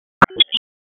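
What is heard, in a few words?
Police Dispatch, p25; Wake Forest Police Disp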